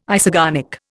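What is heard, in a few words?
"isogonic", Indian English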